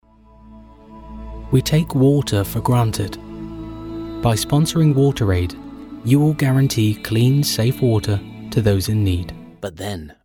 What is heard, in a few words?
English UK